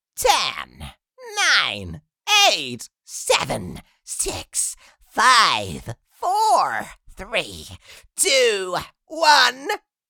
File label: Countdown little fantasy creature; fantasy friends